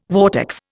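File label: "vortex", Indian English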